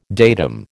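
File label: "datum", American English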